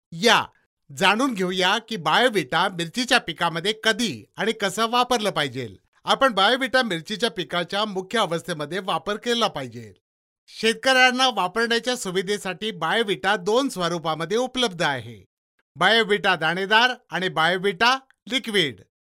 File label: MARATHI MALE